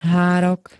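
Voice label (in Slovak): "hárok", Slovak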